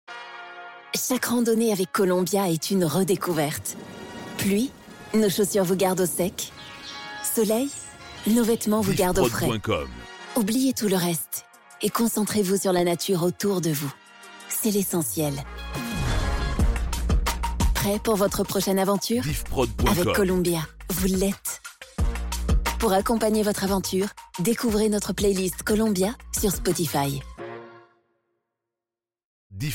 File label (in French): Spotify FR, publicite; Columbia Printemps 2025